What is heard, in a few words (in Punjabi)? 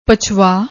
"pachva", Punjabi